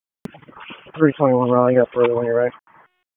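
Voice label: Police Dispatch, p25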